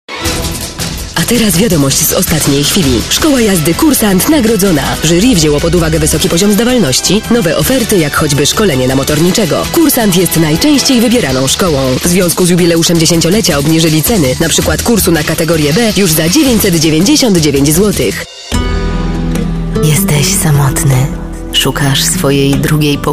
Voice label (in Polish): REKLAMA